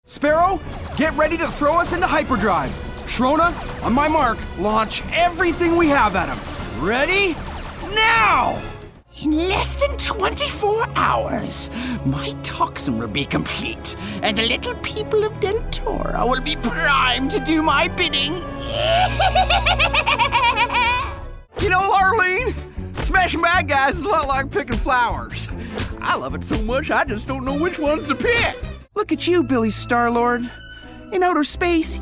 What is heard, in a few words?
Character Demo